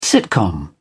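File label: "sitcom", British English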